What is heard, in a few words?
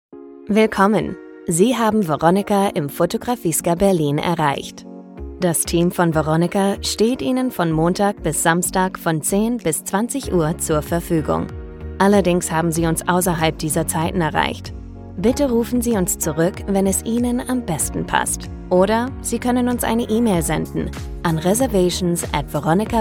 german commercial